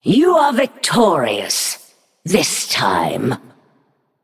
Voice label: Female Naga Concede